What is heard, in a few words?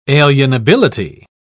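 "alienability", British English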